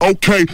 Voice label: "okay", expletives